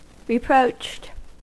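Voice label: "reproached", US English; pronunciations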